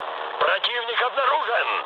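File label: Russian enemy detected mood med, speech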